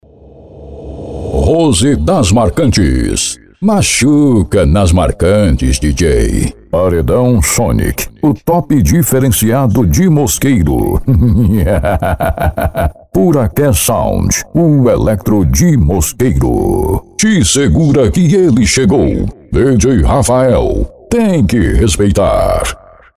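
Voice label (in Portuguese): Vinhetas sem efeitos de fundo; São vinhetas sem efeitos sonoros de fundo, voz tratada, equalização e limpeza de ruídos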